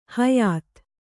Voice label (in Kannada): "ಹೊಯ್ತ", Kannada